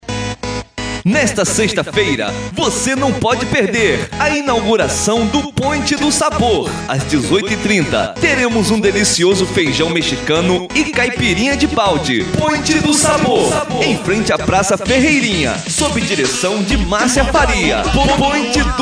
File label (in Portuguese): spot; pointe do sabor